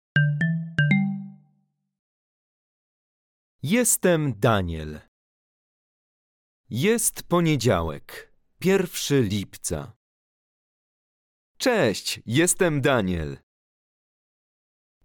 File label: Polish Mini Reader; Daniel in Poland